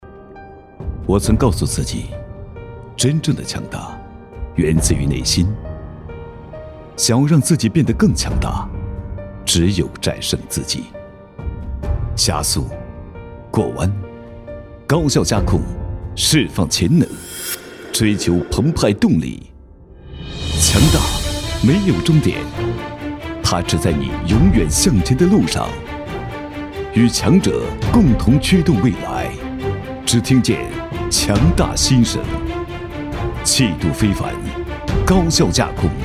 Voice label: 男 广告 汽车类; 全新别克昂科威

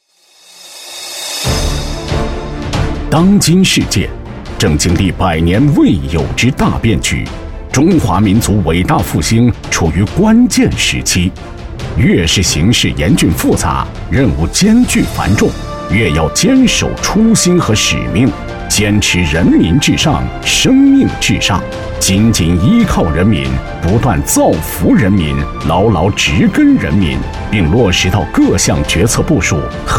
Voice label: 男 专题解说; 反腐; 忘却的使命

